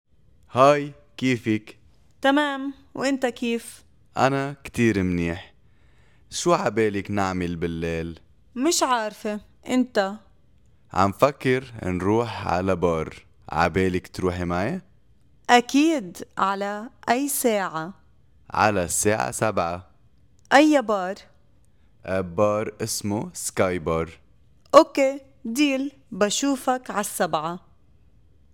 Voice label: Dialogue two spoken Arabic